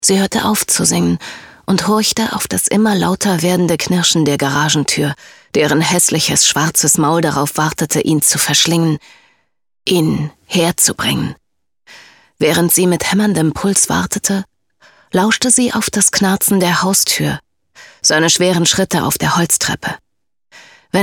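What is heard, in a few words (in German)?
Hörbuch Hörprobe; LaManna Vier Frauen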